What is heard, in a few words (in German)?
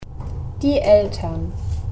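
"Eltern", German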